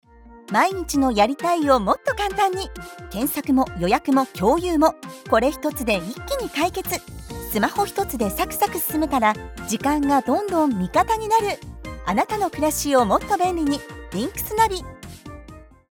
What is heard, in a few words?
japanese others